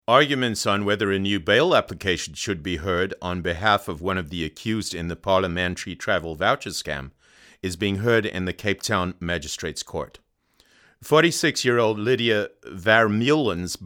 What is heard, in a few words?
accent american